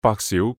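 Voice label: "白小", Cantonese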